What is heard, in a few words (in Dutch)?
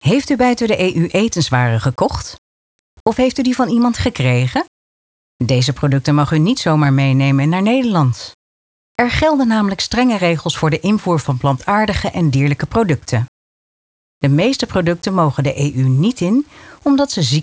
Uitleganimatie invoerrechten